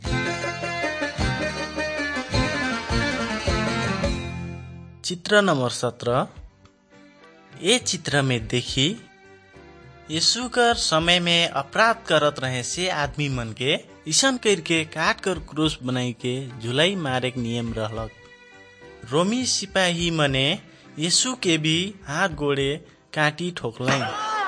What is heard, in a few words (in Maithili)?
good news maithili, nt drama